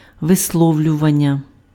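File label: "висловлювання", Ukrainian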